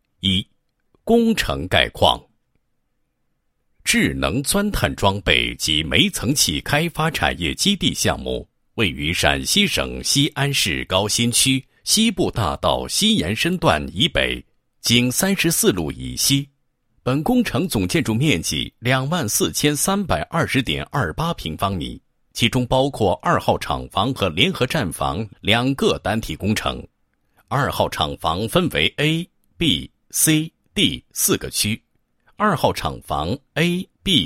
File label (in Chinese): 男 项目解说, 智能钻探装备项目解说